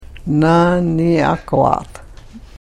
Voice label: "naniakvaat", Karuk

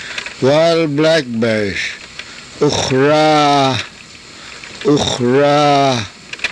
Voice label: "uxraah", karuk

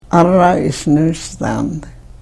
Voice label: karuk

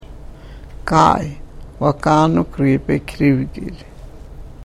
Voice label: karuk

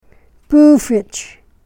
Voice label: "puufich", Karuk

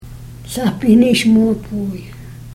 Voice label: "sahpihniich muapvuuy", Karuk

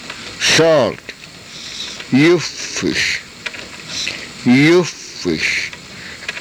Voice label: "yufish", karuk

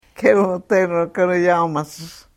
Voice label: karuk

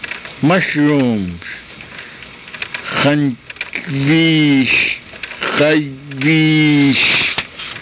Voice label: "xayviish", karuk

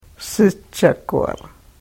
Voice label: "sichakvara", karuk

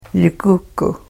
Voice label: "yukukuh", karuk

